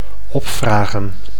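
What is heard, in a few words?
"opvragen", Dutch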